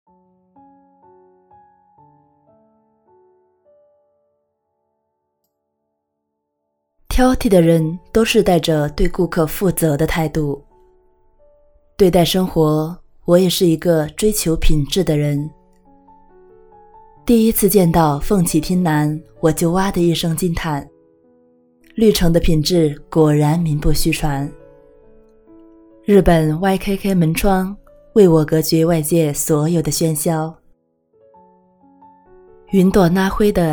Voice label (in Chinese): 短视频旁白素人